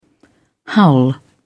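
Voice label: "howl", British English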